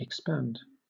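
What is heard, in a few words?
"expand", British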